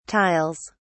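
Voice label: "tiles", British English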